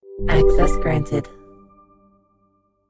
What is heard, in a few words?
access granted computer voice; notification sounds; ringtone